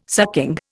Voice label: "sucking", Indian